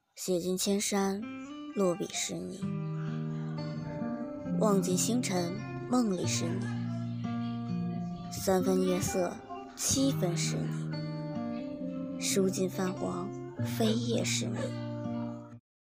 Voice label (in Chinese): 旁白中性